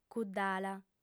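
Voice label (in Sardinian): "cuddala", female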